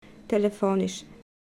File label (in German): "telefonisch", Aussprache Fehlerbeispiele